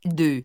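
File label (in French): "deux", French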